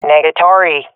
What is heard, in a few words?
negative, swat fem; vo; Counter Strike Model Pack; CS:GO